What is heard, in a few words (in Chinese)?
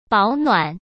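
"保暖", Mandarin Chinese; hsk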